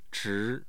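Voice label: "chi", Chinese, second tone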